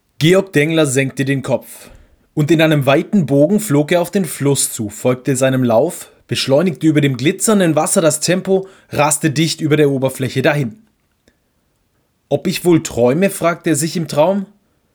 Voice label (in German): Sprechprobe Hörbuch